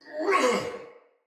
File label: Attack, Barks Battle Soldier; Sinecure Soldier